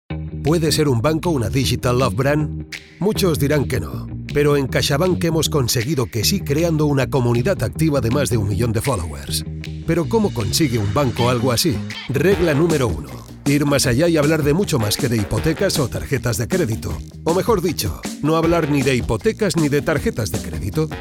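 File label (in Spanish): corporativo España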